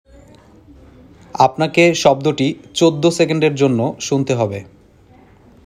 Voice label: male sound given